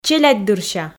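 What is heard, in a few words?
"челядьдырся", Komi, female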